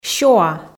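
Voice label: "сёа" Komi, female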